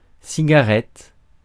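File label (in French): "cigarette", French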